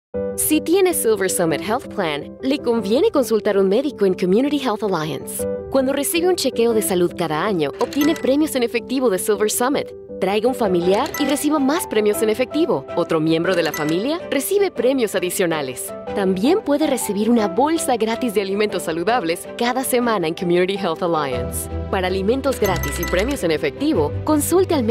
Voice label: medical Spanish